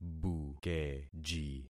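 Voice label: "bukeji", concatenated syllables; Affixing experiment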